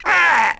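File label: Twi male damage; XBOX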